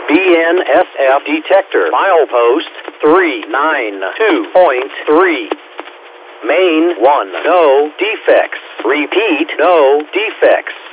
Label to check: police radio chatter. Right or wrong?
Wrong